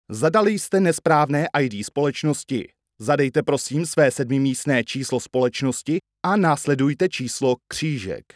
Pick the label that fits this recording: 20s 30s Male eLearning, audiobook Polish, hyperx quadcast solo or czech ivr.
czech ivr